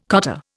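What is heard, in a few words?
"cottar", Indian English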